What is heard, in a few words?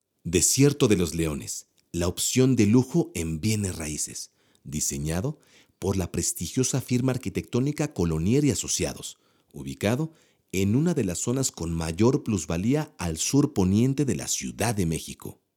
spanish latin american real estate; Spanish (Mexican); Mexico